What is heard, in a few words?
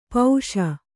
"ಪಾಶಿ", kannada